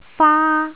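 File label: "faa1", Cantonese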